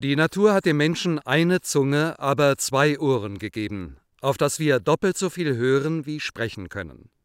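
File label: German Male Speech, PNS with tonality and time envelope check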